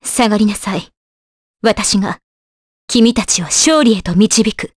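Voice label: vox dialogue, voices, Japanese; Scarlet; kingsraid audio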